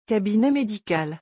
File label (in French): "cabinet médical", French; vocabulary; doctors office